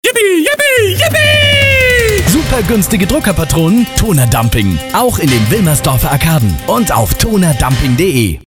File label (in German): spot; tonerdumping wilmersdorf